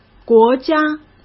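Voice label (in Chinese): "国家", Mandarin Chinese; chinese flashcards; hsk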